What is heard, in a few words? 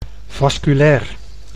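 "vasculair", Dutch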